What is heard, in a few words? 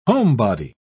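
"homebody", British English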